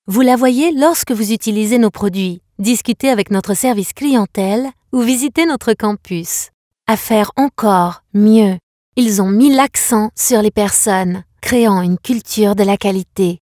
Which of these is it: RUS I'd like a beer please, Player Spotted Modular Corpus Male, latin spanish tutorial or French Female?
French Female